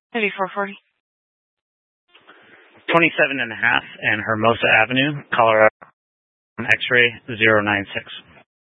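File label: Police Dispatch, scanner audio recording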